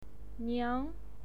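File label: "niang" second tone, pinyin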